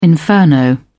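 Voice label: "inferno", British English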